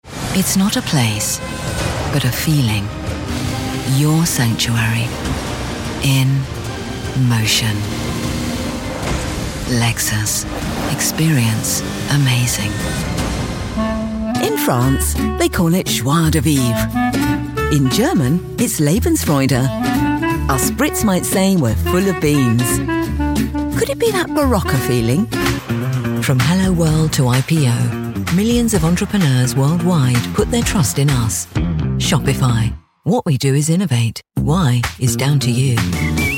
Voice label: commercials